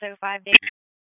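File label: Police Spec Ops Tac, radio; wake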